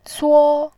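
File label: "suo", Mandarin Chinese, first tone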